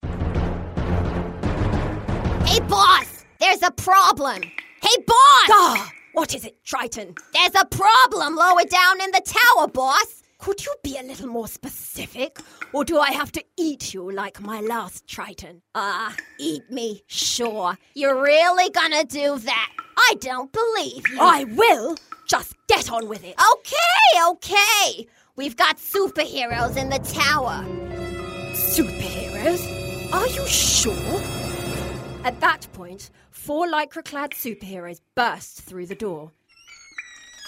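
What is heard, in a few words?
English UK, Characters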